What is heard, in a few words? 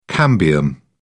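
"cambium", British English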